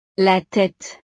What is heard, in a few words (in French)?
"la tete", frances; partes del cuerpo